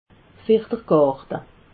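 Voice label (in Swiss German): "ferdrgarte", Alsatian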